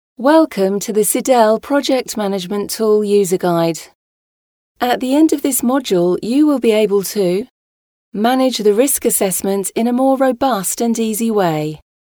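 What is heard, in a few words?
English British, female, e-learning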